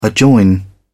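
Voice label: "adjoin", British English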